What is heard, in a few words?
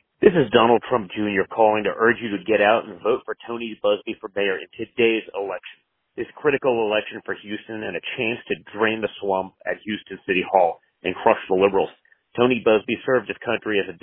voicemail